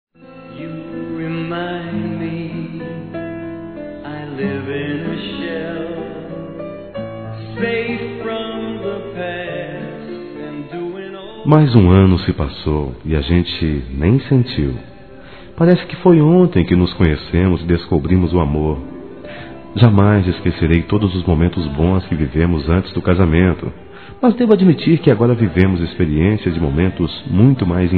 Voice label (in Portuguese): Bodas de Prata Masculino, Telemensagens; Bodas e Casamento